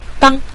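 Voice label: "dang", Mandarin, neutral tone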